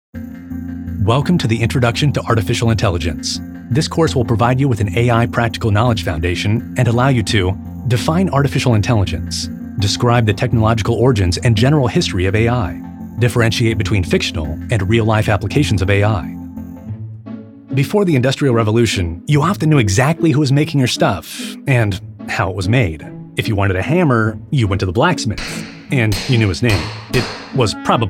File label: eLearning